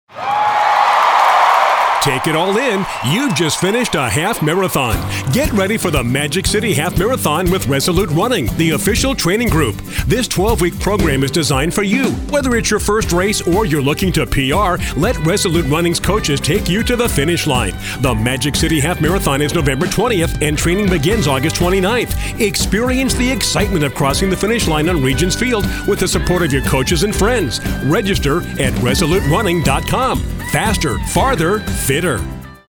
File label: Radio Spot; Magic City